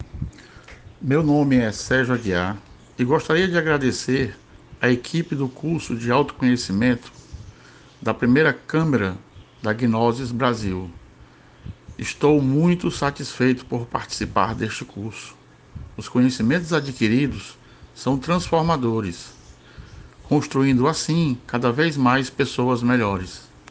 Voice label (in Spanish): portugues testimonios